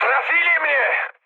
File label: aircraft destroyed mood med, speech pol